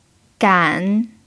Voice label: "gan3", chinese